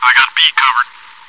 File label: chitchat, bot, voice commands; svencoop